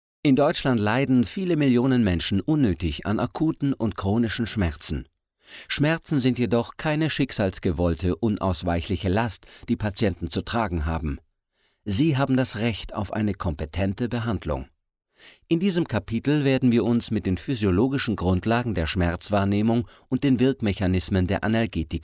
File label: e-Learning; Demos